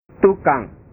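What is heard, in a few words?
"tukang", Indonesian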